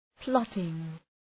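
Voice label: "plotting", English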